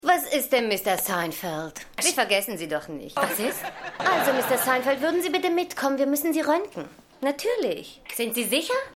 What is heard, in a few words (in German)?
Krankenschwester, Stimmproben; Seinfeld